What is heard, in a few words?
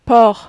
"porc", French